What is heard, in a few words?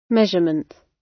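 "measurement", British English; Time, history; Thời gian, lịch sử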